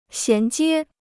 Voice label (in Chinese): "衔接", Chinese